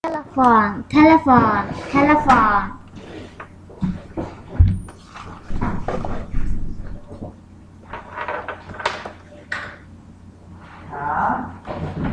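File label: "telephone", spoken word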